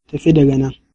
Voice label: go away hausa